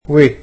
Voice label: "and", egyptian